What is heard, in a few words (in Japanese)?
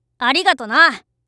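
"ありがとな", Japanese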